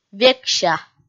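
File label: "векся", Komi, female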